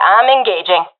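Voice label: attacking, professional female; vo; agents; Source Model Packs; Counter Strike Model Pack; CS2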